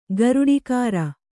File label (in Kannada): "ಗರುಡಿಕಾರ", Kannada